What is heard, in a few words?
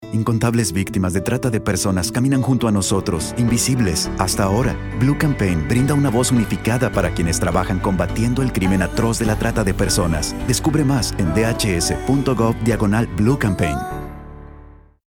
radio spanish